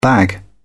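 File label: "bag", British English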